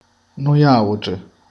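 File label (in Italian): "noi altri", Italian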